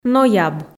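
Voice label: "нояб", Komi, female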